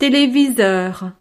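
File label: "televiseur", French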